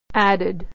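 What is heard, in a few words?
"added", American English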